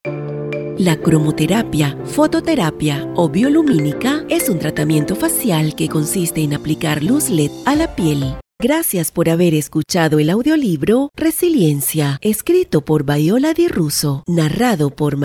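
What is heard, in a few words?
generico, Venezuelan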